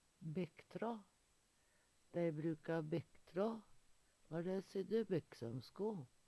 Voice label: "bekktrå", Numedalsmål; Substantiv hankjønn

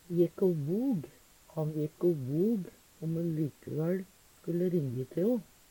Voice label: "jekk o vog", Numedalsmål

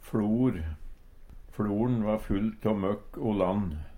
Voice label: "fLor", Numedalsmål; FLorn va full tå møkk o lann.

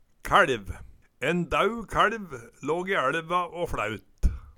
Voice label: kæLv, Numedalsmål

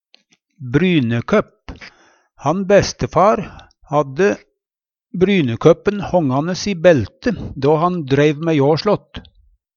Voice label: brynekopp, Numedalsmål

